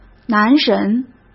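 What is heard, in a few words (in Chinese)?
"男人", Mandarin Chinese; chinese-flashcards; hsk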